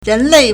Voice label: "ren2 lei4", Chinese